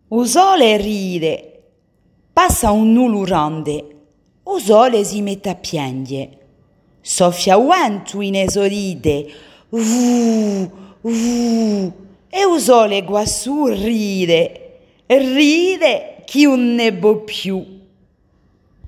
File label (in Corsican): Filastrocca U SOLE RIDE